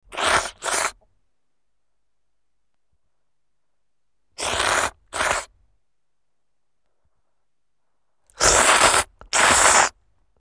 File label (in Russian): iziskannij sup yazik yazik zvukovoj effekt harakter golosa raznoobrazie